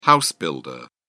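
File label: "house-builder", British English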